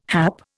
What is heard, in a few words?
"hap", Indian